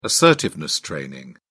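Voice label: "assertiveness training", British English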